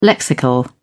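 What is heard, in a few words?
"lexical", British English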